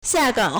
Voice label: "xia4gang3", Chinese